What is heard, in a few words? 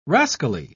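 "rascally", British English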